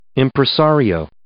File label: "impresario", English